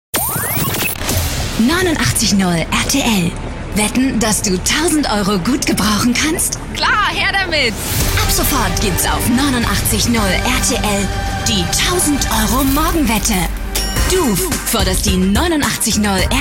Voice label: Station Voice; werbesprecherin